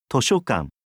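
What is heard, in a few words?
"tosyokan", Japanese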